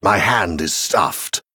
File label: Male Venthyr Error Hand Full